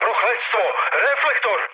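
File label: Serbian searchlite mood med; War Thunder; speech srb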